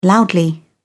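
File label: "loudly", British English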